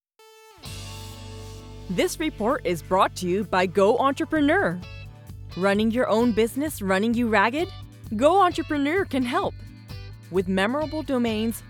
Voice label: Infomercial

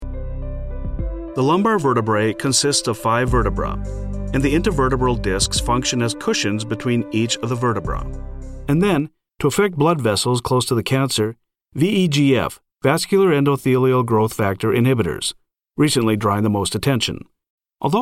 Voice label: Medical tv programs Voice over

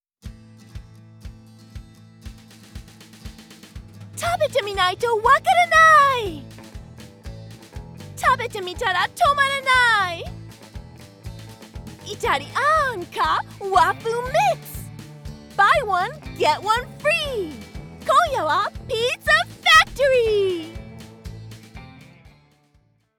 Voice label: Bilingual Pizza Factory CM

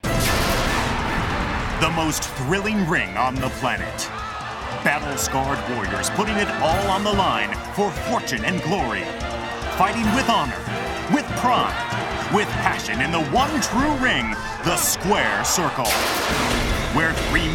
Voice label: Power Voice

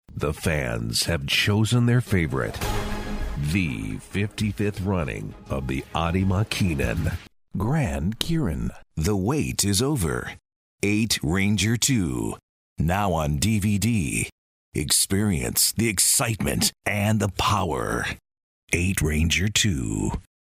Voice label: Deep Voice

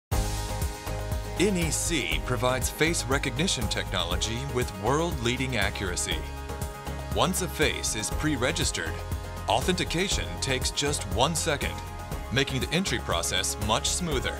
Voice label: TV Commercial; Theme Park Solutions; NEC Official

